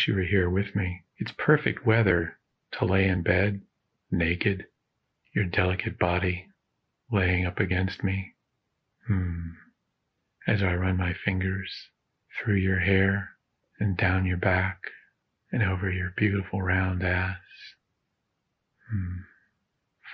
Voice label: Rain, M4F, his role play; his dirty talk